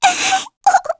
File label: Sad, kr; voices; heroes; Lavril; kingsraid